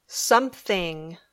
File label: "something", English